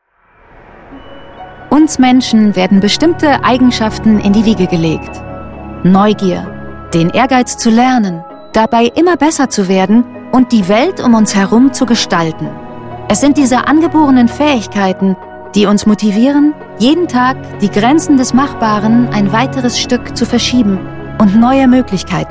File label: Maschinen Futuristic Vision